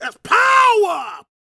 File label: PREACHIN, Gospel PREACHER